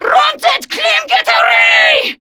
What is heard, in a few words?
Attack, Female; Warframe; Grineer Female; Battle Barks